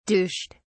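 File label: "douched", British English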